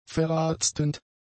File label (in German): "verarztend", German; adjectives